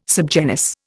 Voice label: "subgenus", Indian